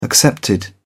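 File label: "accepted", English, British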